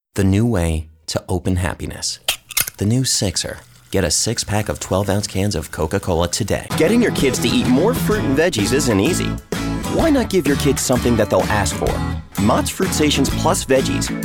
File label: M, EN US